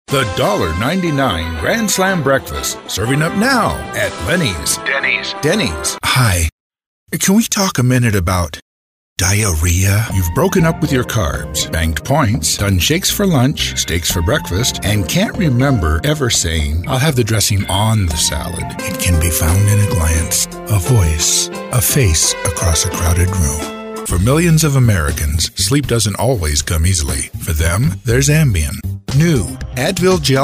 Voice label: Commercial